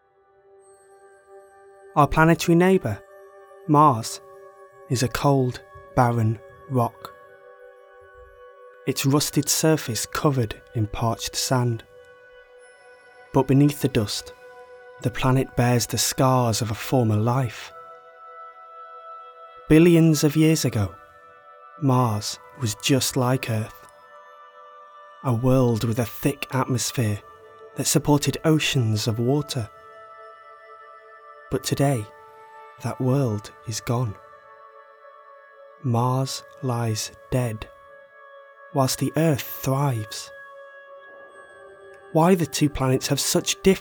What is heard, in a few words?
Documentary Clip, Voice Reel